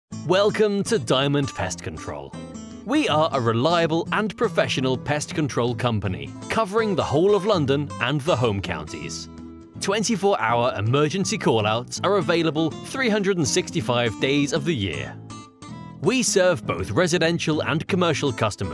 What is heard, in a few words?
English Voice Over